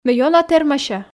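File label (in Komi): ме ёна термася, Komi, female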